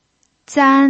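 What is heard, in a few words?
"zan1", Mandarin Chinese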